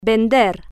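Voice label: "vender", Spanish, female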